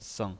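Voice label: "seng", Mandarin Chinese pinyin voice, neutral tone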